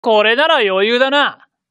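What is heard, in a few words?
battle male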